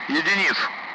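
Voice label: Speech Sound Russian Common Allied Flight; DCS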